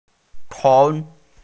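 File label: "ceng2", Mandarin Chinese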